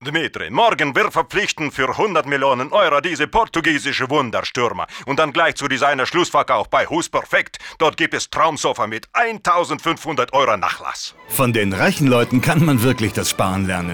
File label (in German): Akzent Russisch